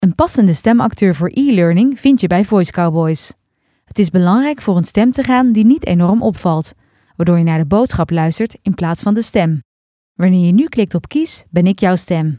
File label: ELEARNING